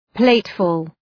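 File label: "plateful", English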